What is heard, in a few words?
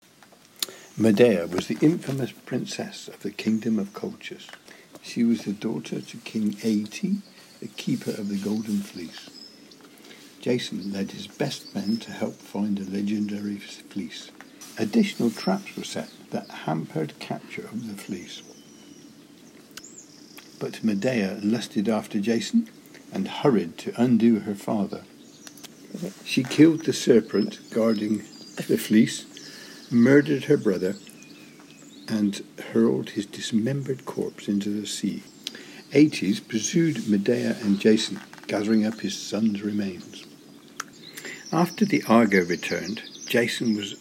Wiltshire Accent Test Sentences